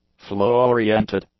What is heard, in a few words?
"flow-oriented", english; adjectives